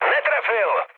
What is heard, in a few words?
bomb failure, speech cze, mood high; War Thunder